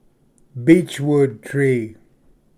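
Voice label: "beechwood tree", English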